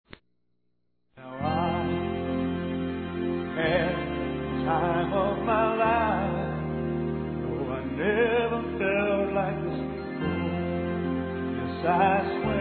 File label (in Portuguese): BODAS PAPEL M, Telemensagens; Bodas e Casamento